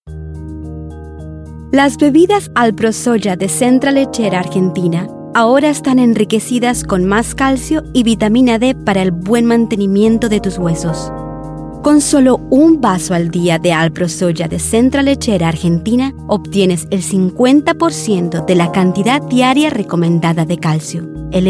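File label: Latin American Spanish, Commercial; GoLocalise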